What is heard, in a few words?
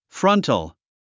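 "frontal", English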